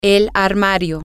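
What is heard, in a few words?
closet, Spanish, female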